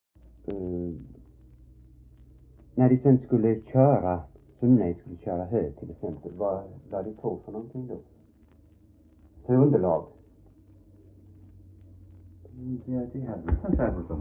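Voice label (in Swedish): Äspö Skåne, dialekter; Folkminnen; Svenska sagor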